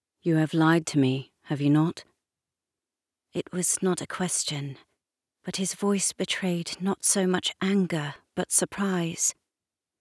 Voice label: audiobook narration british uk youthful fiction first person historical romance; australian voice over